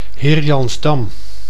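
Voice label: "Heerjansdam", Dutch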